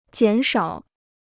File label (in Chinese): "减少", Chinese